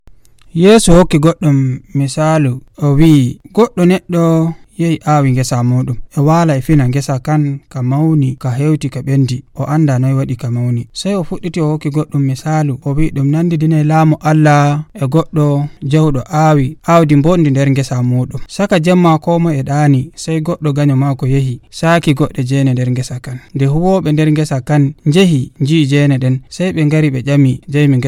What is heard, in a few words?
Fulfulde Nigerian; Jesus Tells the Story of the Wheat and Weeds